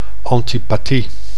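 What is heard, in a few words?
"antipathie", Dutch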